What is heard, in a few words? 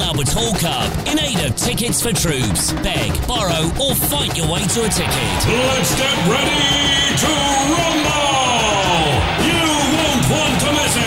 boxing commentator